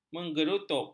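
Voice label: "menggerutup", Malay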